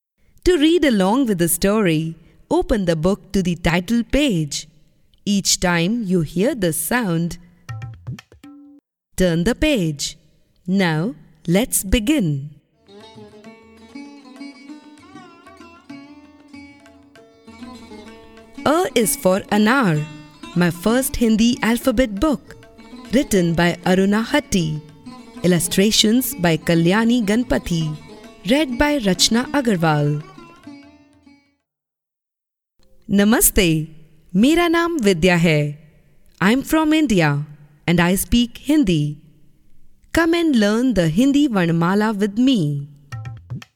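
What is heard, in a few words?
english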